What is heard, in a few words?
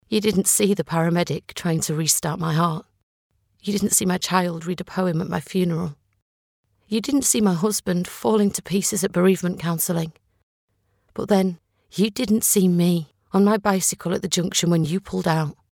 emotional dramtic and authentic